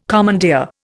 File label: "commandeer", Indian